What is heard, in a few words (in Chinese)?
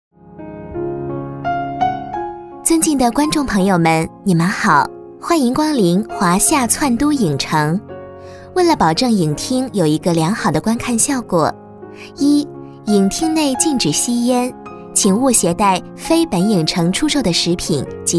女19 播音 商场 影城 广播, 国语女声配音; 华夏爨都影城